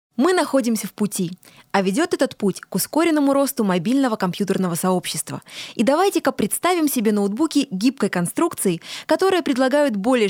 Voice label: Russian